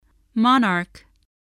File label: "monarch", voice, spelling